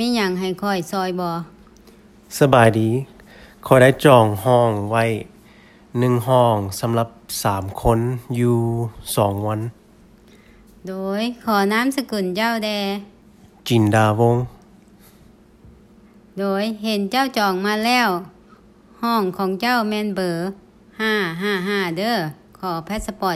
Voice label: at the hotel, conversations